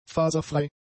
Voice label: "faserfrei", German; adjectives

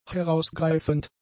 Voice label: "herausgreifend", German; adjectives

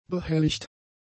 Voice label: "behelligt", German; adjectives

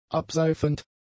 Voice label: "abseifend", German; adjectives

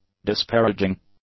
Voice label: "disparaging", English; adjectives

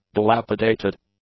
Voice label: "dilapidated", english; adjectives

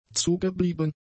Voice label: "zugeblieben", German; adjectives

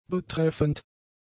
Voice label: "betreffend", German; adjectives

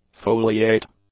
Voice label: "foliate", english; adjectives

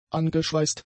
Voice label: "angeschweißt", German; adjectives

